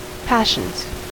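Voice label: "passions", US English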